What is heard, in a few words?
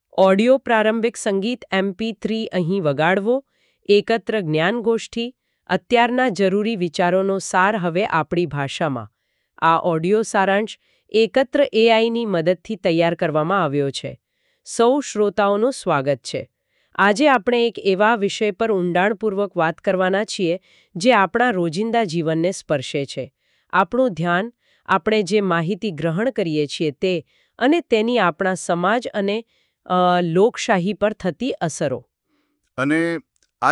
Audio gujarati; the sirens call